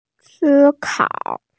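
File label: "si1kao3", Mandarin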